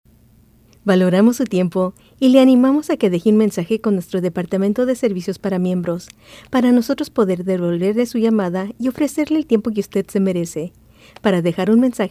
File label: Voiceover Phone System Messaging Step Message